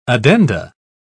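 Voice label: "addenda", English, British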